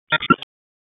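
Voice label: Police Southwest District Tac, radio; Raleigh; Tac 1; wake; p25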